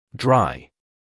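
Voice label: "dry", British English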